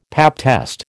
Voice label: "pap test", American

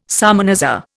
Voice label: "salmanazar", Indian

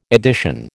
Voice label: "edition", American

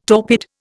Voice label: "torpid", Indian

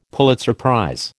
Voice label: "Pulitzer prize", American

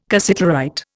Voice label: "cassiterite", Indian

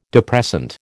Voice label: "depressant", American

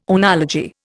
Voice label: "oenology", Indian

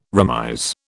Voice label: "remise", British

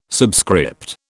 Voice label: "subscript", British

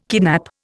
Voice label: "kidnap", Indian

